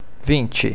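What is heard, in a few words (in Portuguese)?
"vinte", Portuguese; ACBr Fala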